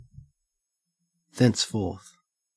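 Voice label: "thenceforth", Australian; pronunciations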